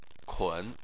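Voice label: "kun", Chinese, third tone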